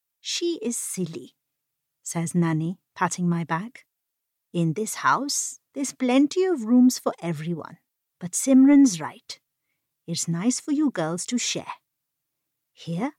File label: Bookread; Tamarind & the Star of Ishta